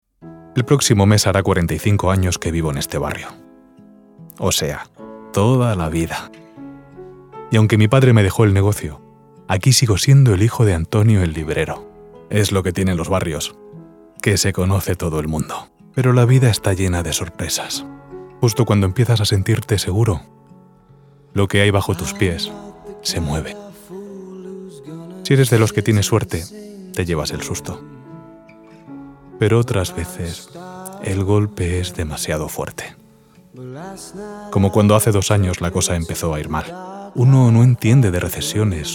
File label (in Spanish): emotivo, Locución publicitaria; locutor